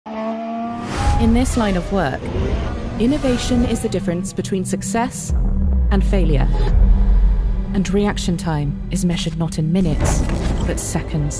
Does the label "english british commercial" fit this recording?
Yes